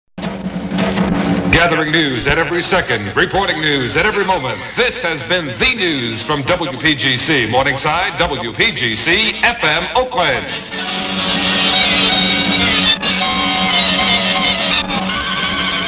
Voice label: sweeper, this has been thee news